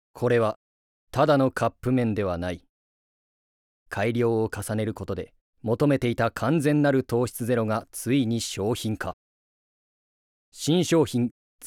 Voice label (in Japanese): ナレーション